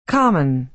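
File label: "common", American English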